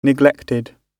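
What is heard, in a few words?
"neglected", British English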